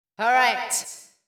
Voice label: VOICE GRL HOUSE 125 A

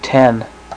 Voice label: 10 male; numbers

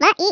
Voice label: what the, Norwegian, speech; 11khz

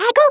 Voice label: "Stupid", Dutch; Speech; International; 11khz

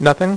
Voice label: "NOTHING", Dealer's Speech; PowerPOKER

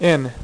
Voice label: "IN", Dealer's Speech; PowerPOKER Demo

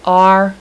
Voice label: "r" female, alphabet; Web Clip Empire 150,000

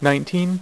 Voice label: "6", poker; iPoker 2000

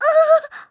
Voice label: lucy voice damage; FantasyTennis

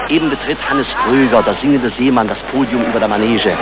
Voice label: reporter

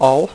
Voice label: "ALL", Dealer's Speech; PowerPOKER

